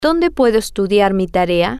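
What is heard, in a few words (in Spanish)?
Donde Puedo Estudiar Mi Tarea, spanish, female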